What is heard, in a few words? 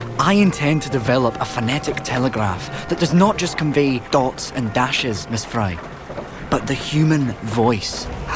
Irish